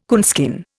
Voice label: "coonskin", Indian